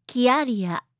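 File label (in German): "Kiaria", japanische Mädchennamen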